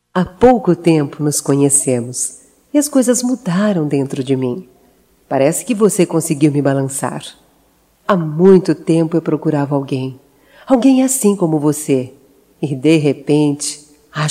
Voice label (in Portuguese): Romântica Feminino, Voz; Telemensagens 2017